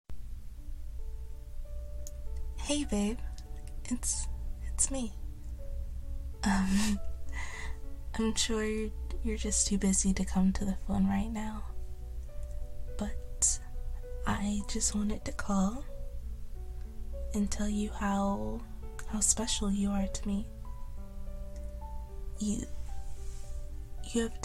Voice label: F4M Calling to gush over you sfw rambling voicemail encouragement L-bombs; compliments; laughs; improvisation; comfort